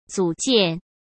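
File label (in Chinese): "组建", Mandarin; chinese-flashcards; hsk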